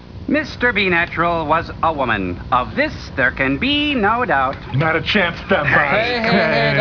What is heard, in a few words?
woman